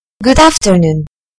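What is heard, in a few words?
"good afternoon", British English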